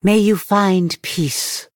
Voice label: Female Night Elf Threaten